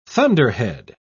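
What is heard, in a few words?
"thunderhead", British English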